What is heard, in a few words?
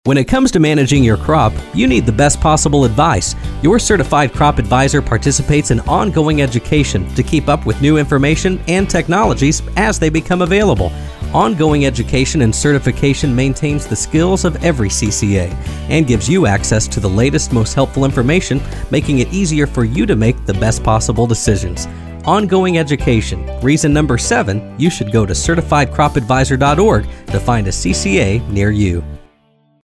radio spot; certified crop adviser